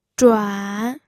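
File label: "zhuan3", Mandarin Chinese pinyin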